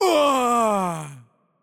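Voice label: Greek, death; el GR; VO; bloom minigame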